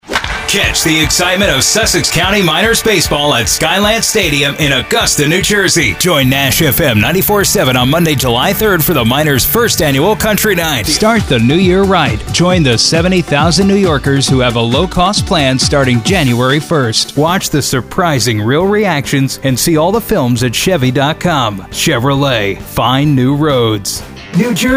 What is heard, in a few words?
Commercial